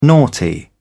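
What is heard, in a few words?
"naughty", British English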